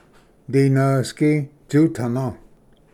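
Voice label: "dinvsgi tsutana", Cherokee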